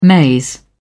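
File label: "maize", British English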